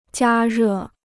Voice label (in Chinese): "加热", Chinese